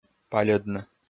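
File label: "пальӧдны", Komi, male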